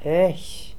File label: "ekh", pronounciations; Fourth Way; Beelzebub